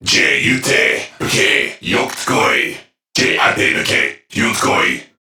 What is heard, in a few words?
Patrol Crewmen, Dialog, Barks; Warframe; CorpusCrewmen; CrewmanA